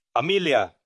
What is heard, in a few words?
Voice Acting, es